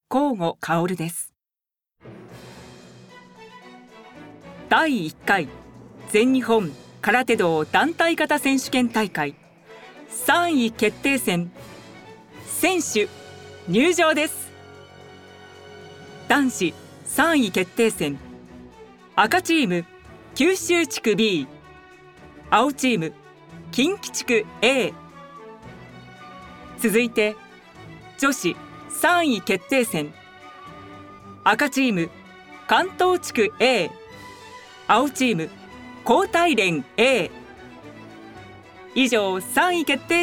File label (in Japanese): イベントMC; 「空手選手権」